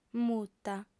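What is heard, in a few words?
"muta", Sardinian, female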